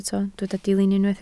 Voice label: "dilyn", Welsh